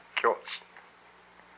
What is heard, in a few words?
"a sinew", hupa